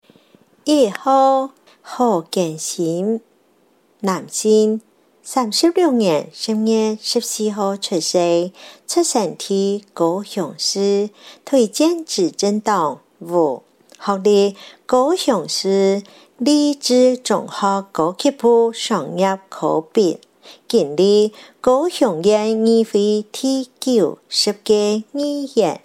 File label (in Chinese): 客語; 第五選區